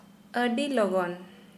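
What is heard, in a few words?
Santali female quickly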